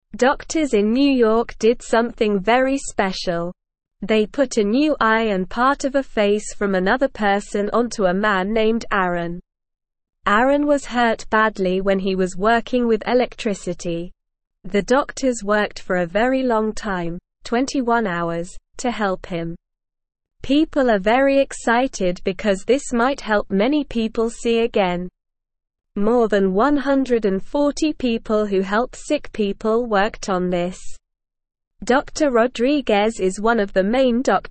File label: English Newsroom Beginner SLOW Reading; Amazing Man in New York gets new face